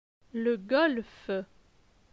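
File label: "Le golf", French; phonetique; les consonnes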